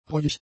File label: "reuig", German; adjectives